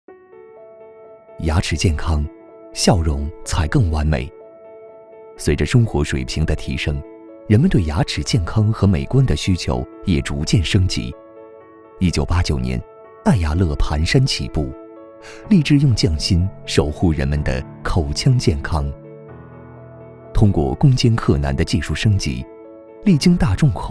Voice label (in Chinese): 男 科技宣传; 爱牙乐